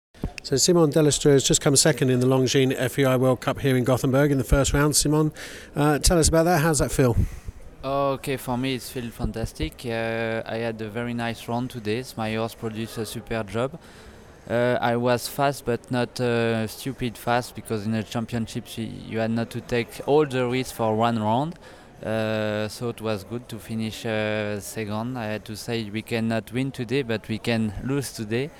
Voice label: English; Longines FEI World Cup Jumping Simon Delestre